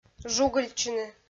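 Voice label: "жугыльтчыны", Komi, female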